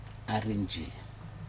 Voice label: "arinji", very strong, Aneityum